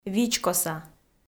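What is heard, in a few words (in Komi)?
"вичкоса", Komi, female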